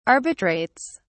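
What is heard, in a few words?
"arbitrates", British English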